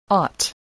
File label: "ought", English voice